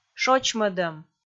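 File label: "шочмӧдӧм", Komi, female; kpv audio dict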